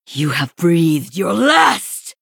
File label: Female Sanlayn; Trigger Asphyxiate